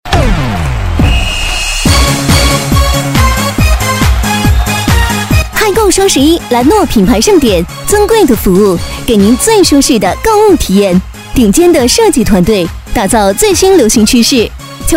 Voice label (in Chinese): 女 促销; 兰诺品牌